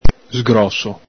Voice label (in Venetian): "sgroso", Veneto